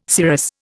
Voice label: "cirrus", Indian

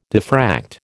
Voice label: "diffract", American

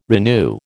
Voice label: "renew", American

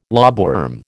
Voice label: "lobworm", American

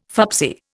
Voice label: "fubsy", Indian

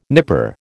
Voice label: "nipper", American

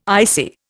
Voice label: "ICI", Indian

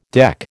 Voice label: "deck", American

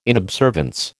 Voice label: "inobservance", American

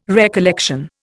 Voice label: "recollection", Indian

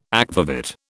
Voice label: "akvavit", British

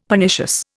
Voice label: "Pernicious", Indian; Dictionary

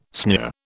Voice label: "sneer", British

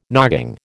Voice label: "nogging", American

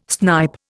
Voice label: "snipe", Indian

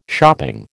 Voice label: "shopping", American

